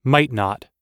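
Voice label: "might not", US English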